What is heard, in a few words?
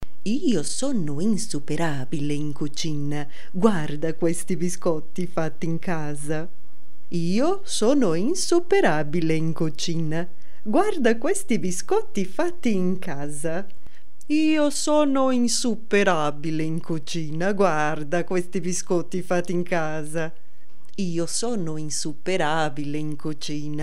Italian